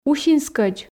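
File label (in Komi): "усинскӧдз", Komi, female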